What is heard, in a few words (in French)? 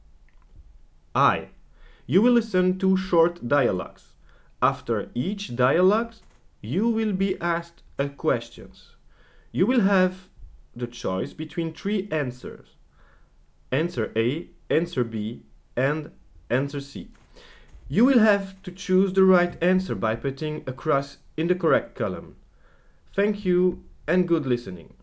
consigne anglais; Positionnement-FLE-Bruxelles